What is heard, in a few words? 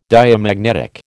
"Diamagnetic", American